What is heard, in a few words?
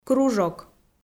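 "кружок", Komi, female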